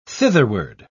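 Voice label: "thitherward", British English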